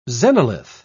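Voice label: "xenolith", British English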